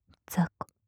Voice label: ざこ・極近・左, ASMR

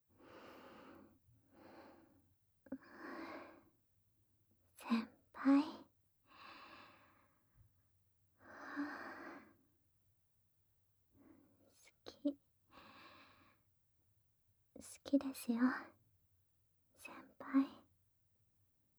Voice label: 耳舐め, ASMR; 部活前の応援耳舐めクリーム手コキ

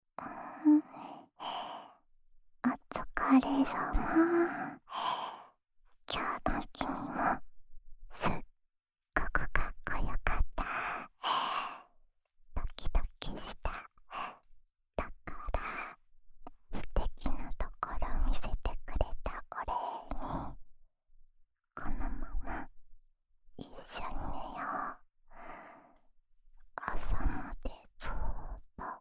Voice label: 添い寝ループ 左耳, ASMR; おま○こ係２

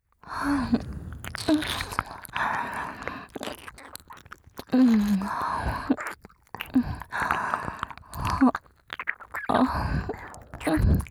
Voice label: 左右同時耳責め, ASMR

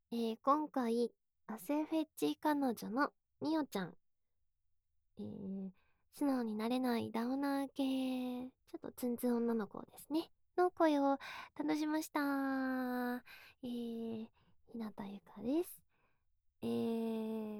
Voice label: フリートーク; ダウナー系ツンデレ幼なじみ; 汗フェチカノジョ

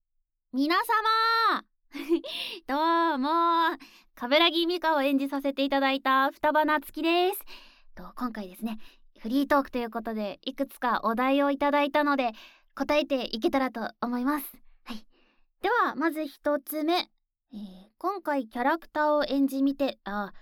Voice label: フリートーク

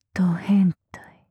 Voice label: "変態"・強く・極近・右; マゾオスのあなたに敗北射精の快楽を叩き込む音声

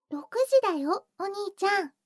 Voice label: 時報6時; 全肯定ロリママのあまあまでえっちな添い寝【いもおかASMR】

